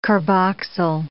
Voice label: "carboxyl", English

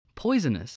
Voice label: "poisonous", English

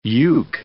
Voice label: "uke", English

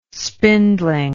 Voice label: "spindling", English

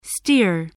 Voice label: "steer", voice

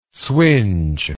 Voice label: "swinge", English word

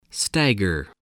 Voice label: "stagger", voice

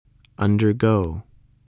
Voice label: "undergo", English spelling voice

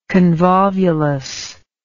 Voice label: "convolvulus", English

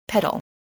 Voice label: "petal", voice, English

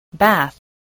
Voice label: "bath", English

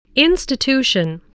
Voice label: "institution", voice spelling

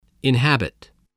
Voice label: "inhabit", spelling voice

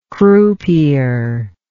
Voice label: "croupier", english

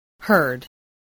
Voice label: "herd", English, spelling

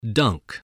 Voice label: "dunk", English

